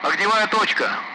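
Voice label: Speech, Russian; Allied Flight; target-bunker; DCS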